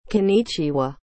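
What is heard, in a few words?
"konnichiwa", Japanese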